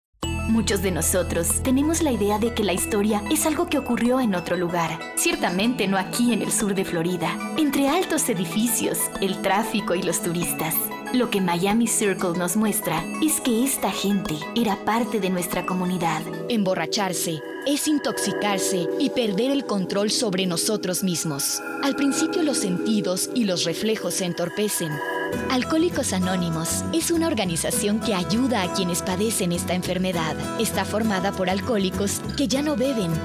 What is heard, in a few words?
Foreign Voices Spanish Female Narration